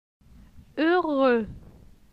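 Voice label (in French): "Heureux", French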